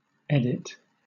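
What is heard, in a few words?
"edit", British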